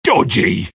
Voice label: jeers; sniper; source engine; tf